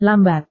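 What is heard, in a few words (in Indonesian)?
"lambat", Indonesian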